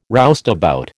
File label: "roustabout", American